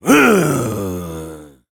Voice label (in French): Engineer jeers, fr; Réponses de l'Engineer